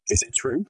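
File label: VoiceActing es, Male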